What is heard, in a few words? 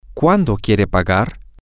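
when do you want to pay, Spanish, female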